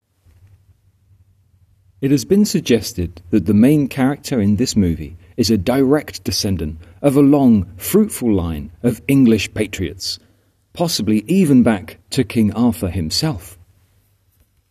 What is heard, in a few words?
voiceover